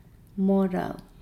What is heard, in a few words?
"fast hurry moolau", Nukuoro